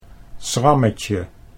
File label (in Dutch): "schrammetje", Dutch